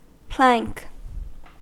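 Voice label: "plank", American English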